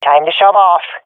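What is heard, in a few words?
request move, swat female; vo; Counter Strike Model Pack; CS:GO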